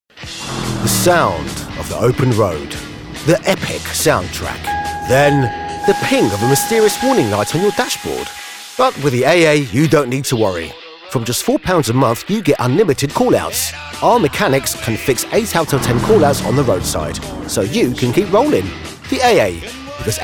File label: Commercial; AA